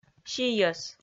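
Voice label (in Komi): "сийӧс", Komi, female; kpv audio dict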